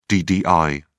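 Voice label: "ddi", en, British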